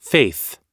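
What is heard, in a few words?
"faith", English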